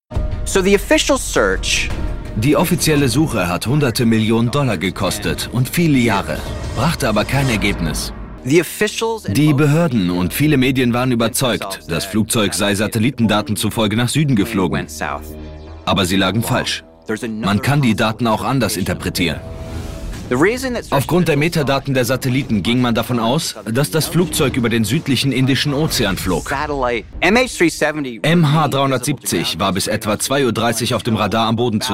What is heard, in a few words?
Voiceover, Doku, Off, Comment (Kommentar), German; LOFT VOICES; Sprache: German